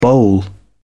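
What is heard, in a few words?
"boll", British English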